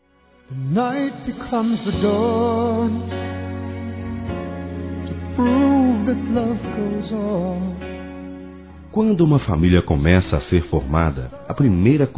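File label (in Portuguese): ESPOSA, male, Telemensagens Dia das Mães; Masc; Telemensagens 2017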